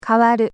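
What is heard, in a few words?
"kawaru", Japanese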